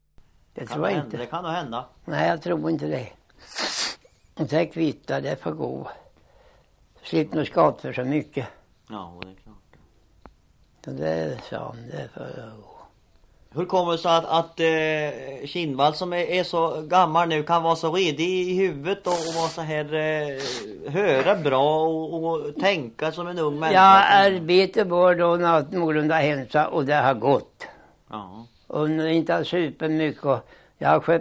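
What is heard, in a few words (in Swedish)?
Västra Eneby Östergötland, Svenska sagor, dialekter; Folkminnen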